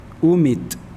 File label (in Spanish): Nawat palabras hueso; pipil